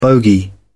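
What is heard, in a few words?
"bogie", British English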